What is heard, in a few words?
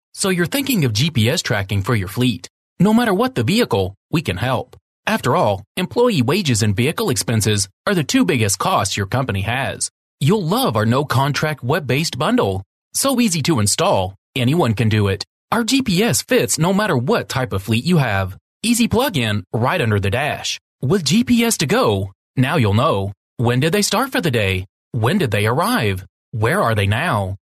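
English, US, male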